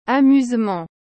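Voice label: "amusement", French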